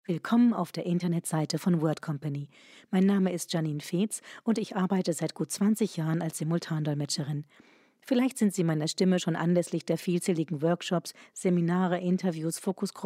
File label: German Voice Sample; WordCompany